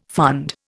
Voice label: "fund", Indian

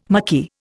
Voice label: "mucky", Indian

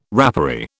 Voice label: "rapparee", British

